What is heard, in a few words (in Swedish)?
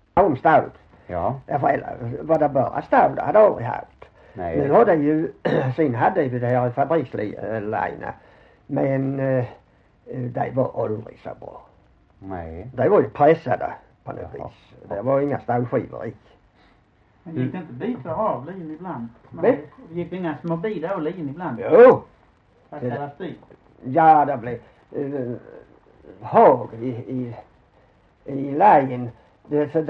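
Hörja Skåne, Svenska sagor dialekter; Folkminnen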